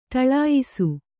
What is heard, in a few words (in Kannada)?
"ಢಾಳಯಿಸು", Kannada